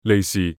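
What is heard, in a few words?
"利事", Cantonese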